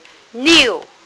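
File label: "liu4", Mandarin Chinese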